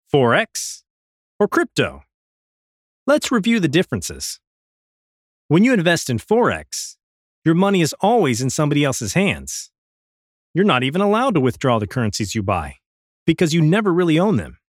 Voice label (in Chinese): 外籍英男 干音 力度激情